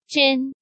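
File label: "Zhēn", Chinese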